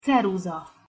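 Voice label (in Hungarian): "ceruza", Hungarian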